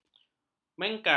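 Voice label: "mengkal", Malay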